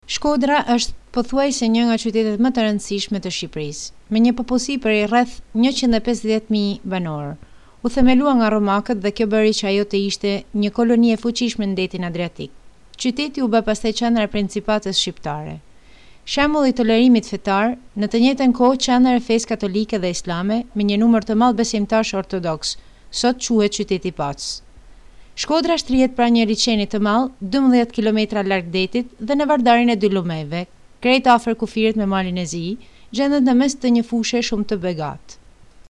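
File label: Albanian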